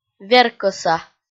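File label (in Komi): "веркӧса", Komi, female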